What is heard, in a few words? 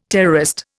"terrorist", Indian